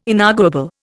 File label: "inarguable", Indian